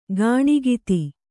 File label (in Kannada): "ಕಣ್ಗೆತ್ತು", Kannada